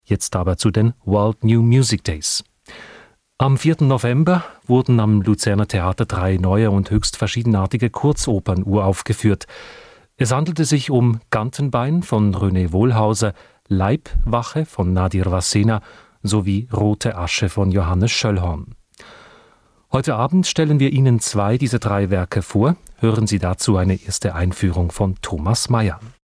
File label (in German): ansage radiosendung